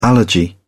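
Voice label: "allergy", British English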